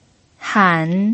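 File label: "han" third tone, pinyin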